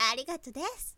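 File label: "arigatodesu", Japanese, woman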